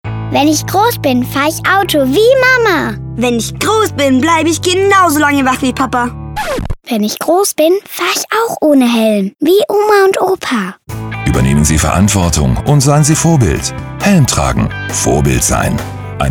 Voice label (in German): funkspot; helm tragen vorbild sein